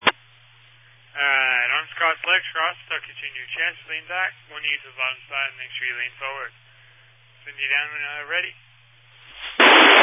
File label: Family Radio Service (FRS)